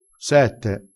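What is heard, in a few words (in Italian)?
"sette", vocabolario dialettale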